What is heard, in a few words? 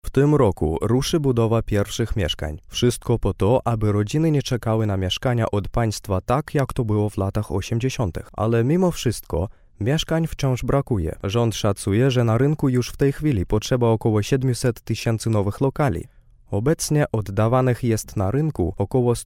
Polish